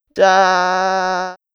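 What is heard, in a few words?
"ざ", Japanese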